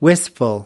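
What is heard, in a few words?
"wistful", US English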